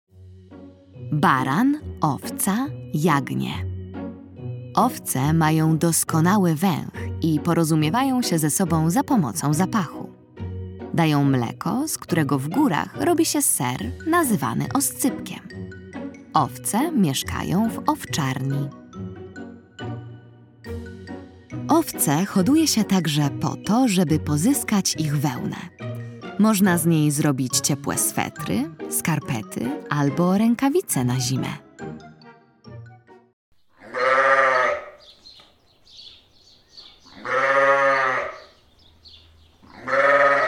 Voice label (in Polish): narracja; czasopismo mis; lato; baran owca jagnie ciekawostka